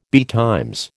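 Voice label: "betimes", American English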